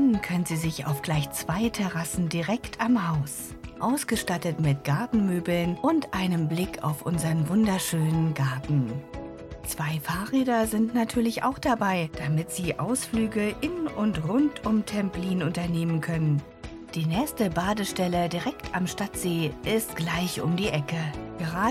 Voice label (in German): Werbung Ferienhaus; Kagerer